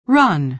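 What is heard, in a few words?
"run", American English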